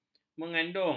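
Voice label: "mengandung", Malay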